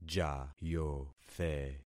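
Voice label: "jayothe", concatenated syllables; Affixing experiment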